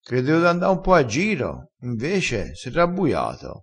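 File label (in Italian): "rabbuia", vocabolario dialettale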